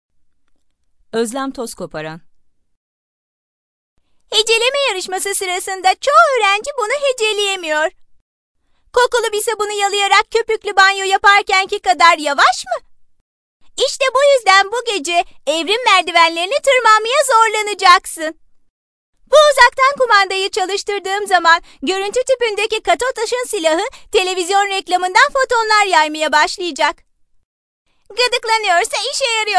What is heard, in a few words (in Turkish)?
animasyon